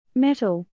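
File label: "METAL", English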